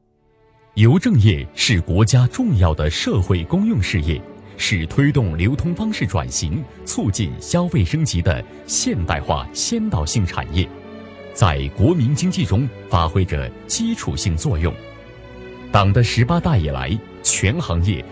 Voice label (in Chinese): 男; 邮政创业大赛